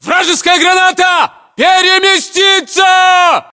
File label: inform incoming grenade, battlechatter, ru